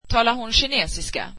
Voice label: swedish does she speak Chinese

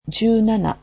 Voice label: "seventeen", Japanese

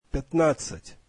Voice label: russian fifteen

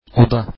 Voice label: room, turkish

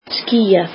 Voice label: icelandic cloudy

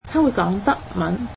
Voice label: cantonese he speaks German

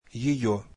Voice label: russian her

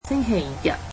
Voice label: Sunday, cantonese

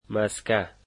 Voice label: "butter", Dari

